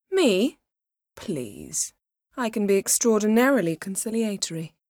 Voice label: female; Skyrim Special Edition; UVNPC Assigned; Solitude; Endarie

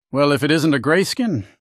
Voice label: male commoner accented; Skyrim Special Edition; Windhelm Dialogue Expansion

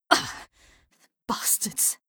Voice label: Female; Skyrim Special Edition; Dawnguard Beleval

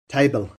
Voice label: "table", English

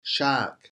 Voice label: "shark", English

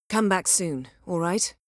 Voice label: female; Skyrim Special Edition; UVNPC Assigned; Solitude; Endarie

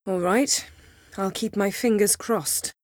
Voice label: female

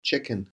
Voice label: "chicken", English

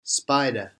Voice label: "spider", English

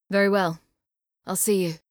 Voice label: Female; Skyrim Special Edition; Dawnguard Beleval

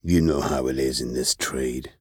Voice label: male; Skyrim Special Edition; UVNPC Assigned; Winterhold; Legate Sevan

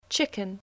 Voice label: "chicken", English

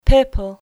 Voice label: "purple", English

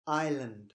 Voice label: "island", English

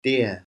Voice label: "deer", English spelling

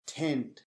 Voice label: "tent", English spelling

